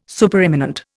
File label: "Supereminent", Indian